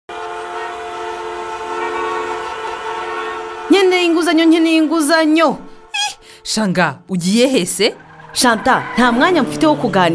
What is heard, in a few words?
Public Service Announcement Kinyarwanda; FinLit